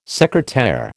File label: "secretaire", American